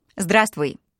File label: bonjour, voix; ru